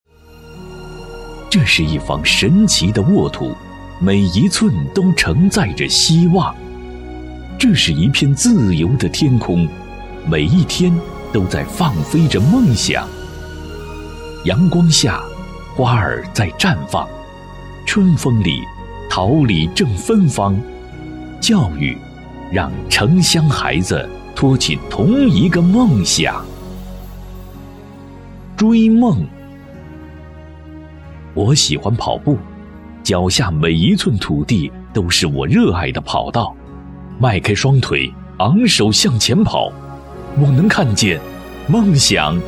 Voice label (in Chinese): 男 宣传片 教育厚重大气